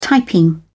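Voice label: "typing", British English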